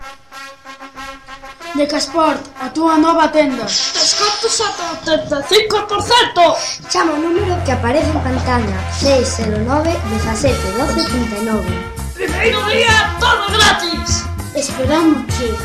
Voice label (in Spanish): publicidad